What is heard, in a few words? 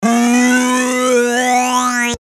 funny voice